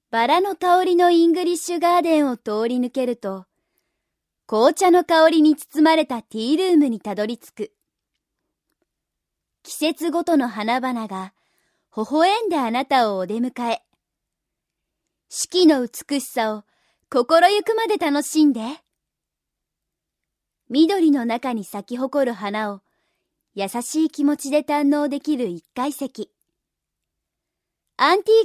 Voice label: narration